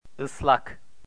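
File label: "islak", Turkish, male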